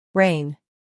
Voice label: "rain", English